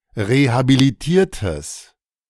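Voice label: "rehabilitiertes", German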